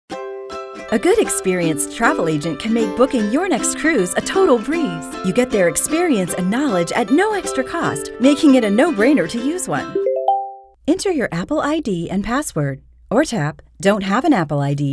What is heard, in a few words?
Narration, E-Learning for Kids